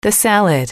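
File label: "the salad", English, female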